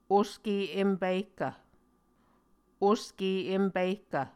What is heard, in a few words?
"oske em pihkv", Muscogee